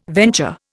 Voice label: "venture", Indian